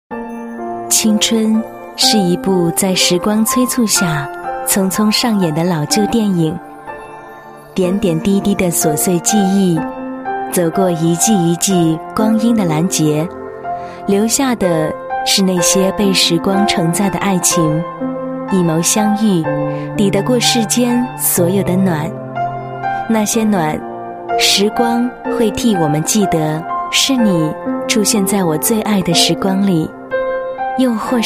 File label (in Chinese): 女, 旁白, 抒情; 在我最爱的时光里